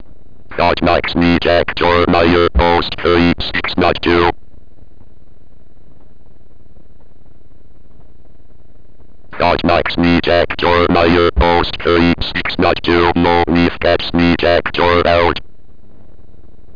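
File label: Hotbox No Defects, Defect Detector Simulator; railroads; Arduino; Hotbox-Detector; Defect detectors are one of the technologies that allowed the prototype railroads to virtu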